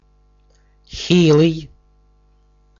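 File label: "хилый", Russian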